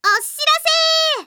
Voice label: notice, Japan Anime Girls; Windows; Bing; Nana